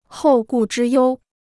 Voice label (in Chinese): "后顾之忧", Chinese